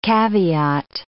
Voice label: "caveat", English